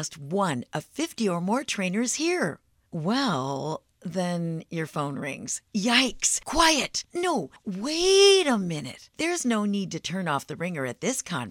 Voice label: script for voiceover